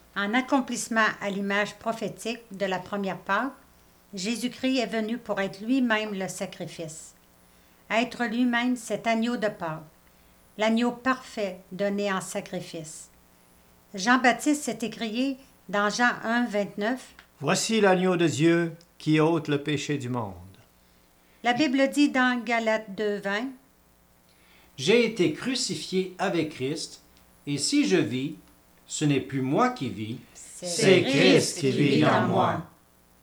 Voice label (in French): narration et lecture; Cantate : Christ notre Pâque; Pâques